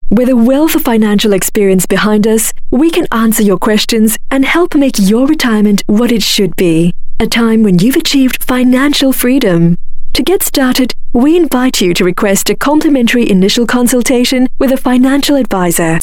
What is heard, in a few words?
Asian English Retirement